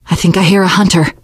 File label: heard hunter, teen girl, voice; source engine; left 4 dead 2; survivor